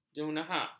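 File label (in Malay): "jenahak", Malay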